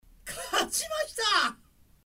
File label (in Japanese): パワフル男性; 男性 「勝ちました！」; ボイス